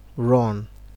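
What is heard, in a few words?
"run", Nigerian Pidgin, male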